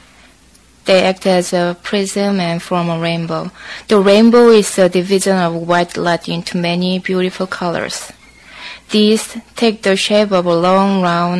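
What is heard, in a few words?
korea, International Dialects of English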